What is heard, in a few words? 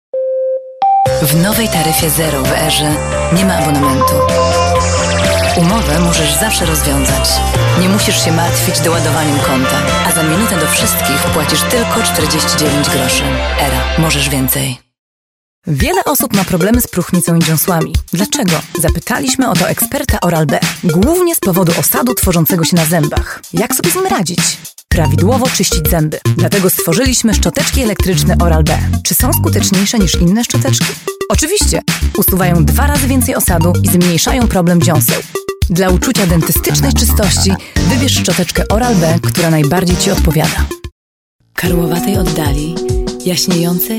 polish female